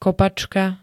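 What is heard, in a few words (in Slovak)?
"kopačka", Slovak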